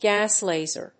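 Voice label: "GAS LASER", English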